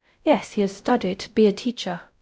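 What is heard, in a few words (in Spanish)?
Voice Acting, es, female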